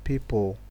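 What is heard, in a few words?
"people", Nigerian Pidgin, male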